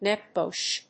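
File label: "neck bush", English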